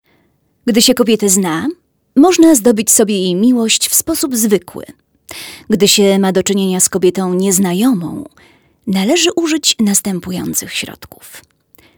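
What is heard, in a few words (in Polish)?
NARRACJA, female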